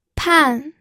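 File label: "pan", Mandarin Chinese, fourth tone; pinyin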